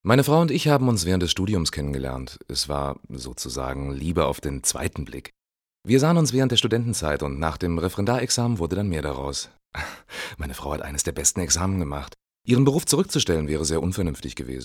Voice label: synchron

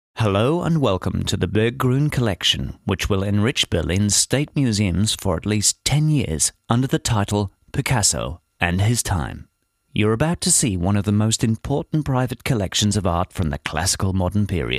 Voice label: uk englisch; LOFT VOICES

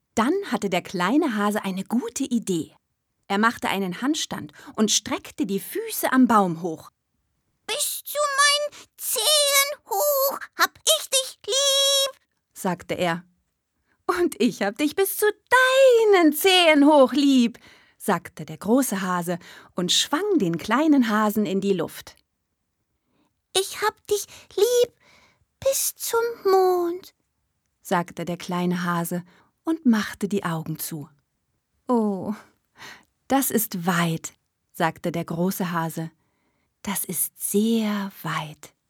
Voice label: Kinderhörbuch

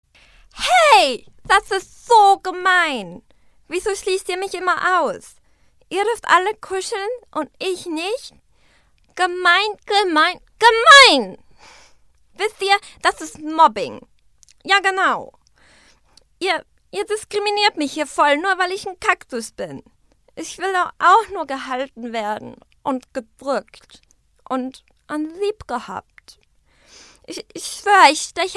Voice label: Deutsch Animationscharakter

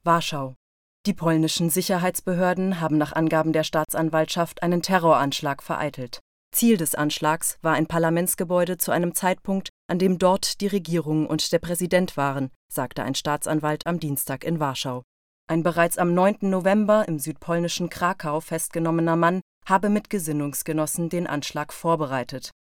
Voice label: Nachrichten

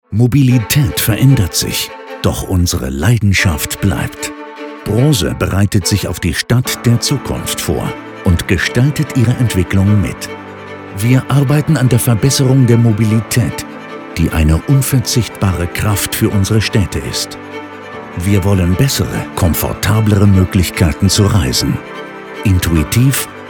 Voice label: imagefilm technik mobilitaet; brose; LOFT VOICES

